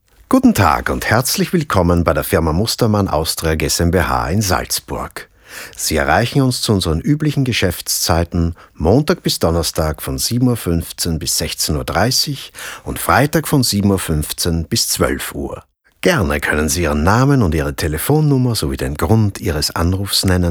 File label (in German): Anrufbeantworter